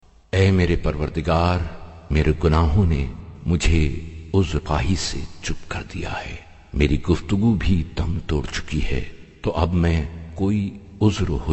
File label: ramadan dua urdu; Masnoon Duain